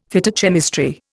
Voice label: "Phytochemistry", Indian; Dictionary

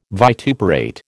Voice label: "vituperate", American

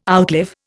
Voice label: "outlive", Indian

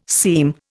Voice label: "seam", Indian

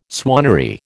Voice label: "swannery", American

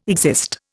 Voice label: "exist", Indian

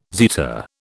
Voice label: "zeta", British

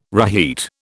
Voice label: "reheat", British

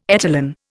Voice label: "etalon", Indian

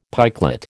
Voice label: "pikelet", American

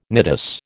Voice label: "nidus", American

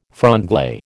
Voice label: "franglais", American

